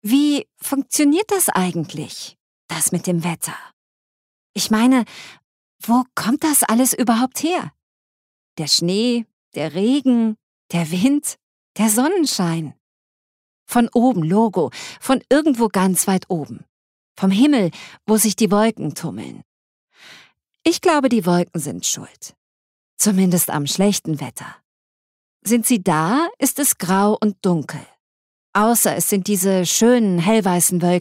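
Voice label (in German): Schauspiel, Kindlich; Wetter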